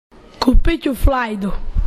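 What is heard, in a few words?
"flajda", Croatian dialect; haljina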